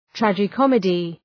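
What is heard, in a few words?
"tragi-comedy", English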